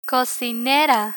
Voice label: "cocinera", Spanish; listening comprehension; learn spanish